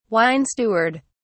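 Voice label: "wine steward", British English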